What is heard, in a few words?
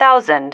"thousand", female